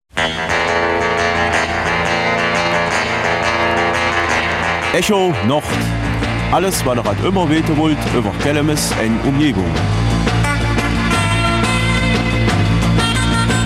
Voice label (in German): Kelmiser Mundart; Neue Bürgerinitiative Kelmis